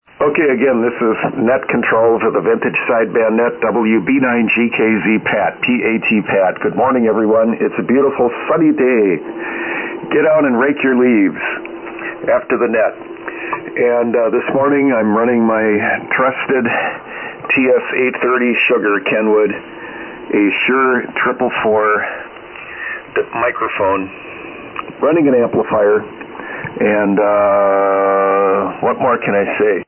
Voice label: Kenwood TS-830S MC-50 Mic & AL-80B Amp 80m Loop Antenna; Sturgeon Bay, Wi.